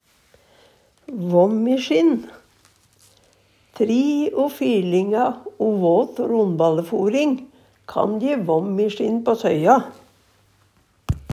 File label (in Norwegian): vomm i sjinn, Numedalsmål